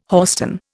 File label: "hoarstone", Indian